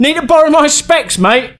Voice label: Taunt, male; svencoop

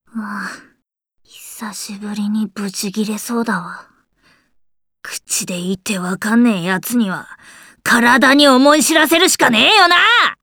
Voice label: chitchat, voice commands; svencoop; Blanc

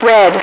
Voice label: red, radio, female

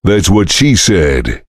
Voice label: chitchat; svencoop; voice commands; Duke

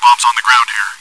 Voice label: chitchat, bot, voice commands; svencoop

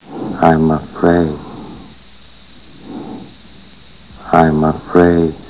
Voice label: afraid; svencoop

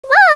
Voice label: run away, voice commands; svencoop; Miku

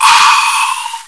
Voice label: death, bot voice commands; svencoop

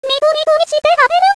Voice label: cheer, voice commands; svencoop; Miku

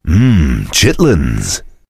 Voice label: cheer; svencoop; voice commands; Duke

